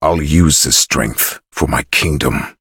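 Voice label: levelup, English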